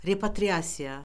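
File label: "repatriasiya", Azerbaijani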